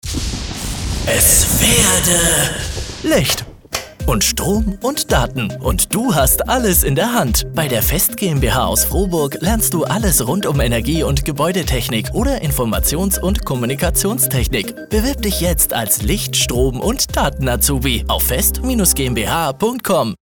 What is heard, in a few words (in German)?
Werbung Radio Azubispot; Frohburger